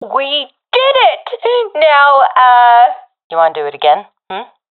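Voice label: round won, professional female; Counter Strike Model Pack; CS:GO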